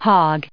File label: "hog", en us